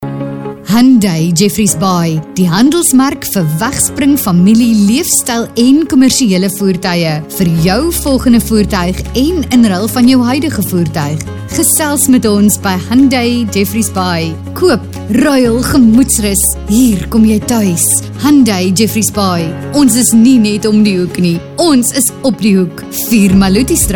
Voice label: Afrikaans Medium; South Africa; Hyundai

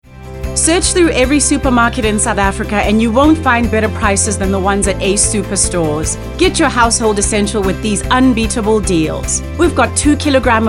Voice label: Retail Hard Sell

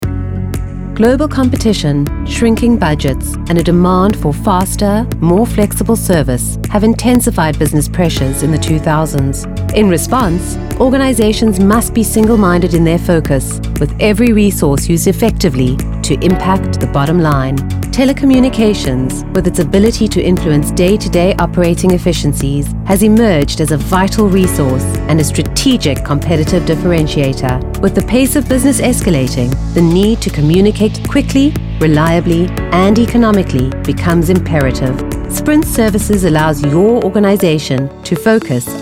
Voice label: Corporate; Sara Lee Sprint Services

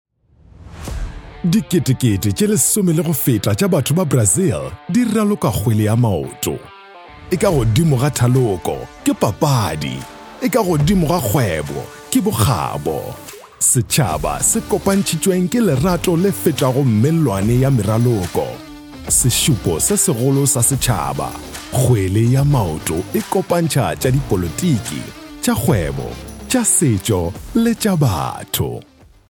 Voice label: SEPEDI PROMO SOCCER

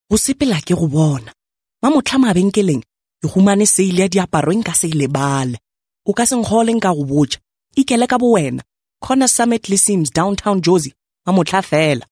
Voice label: Sepedi

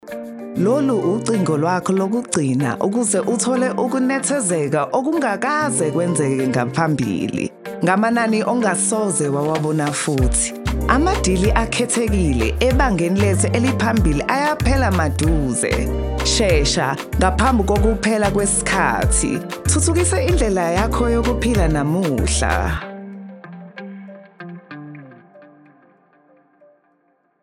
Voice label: ZULU; Last Chance For Luxury

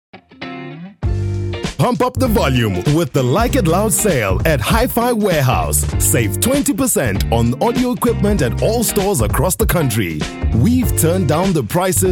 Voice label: Medium Sell Eng

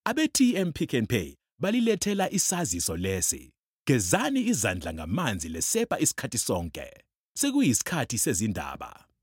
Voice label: Ndebele Retail

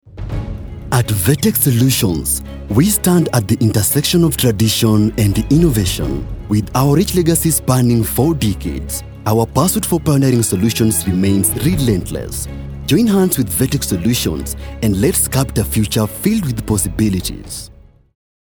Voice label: KENYA MALE VO CORPORATE

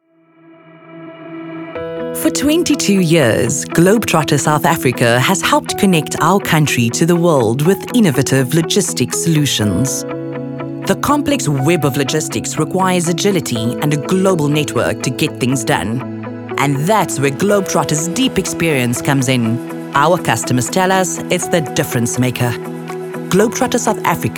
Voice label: Corporate Vo; South Africa

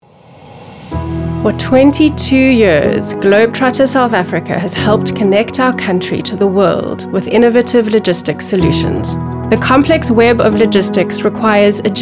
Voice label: Corporate Explainer

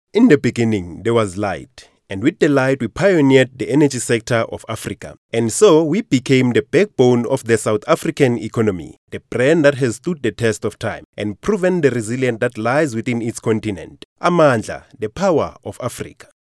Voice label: Corporate

